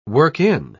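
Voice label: "work-in", British English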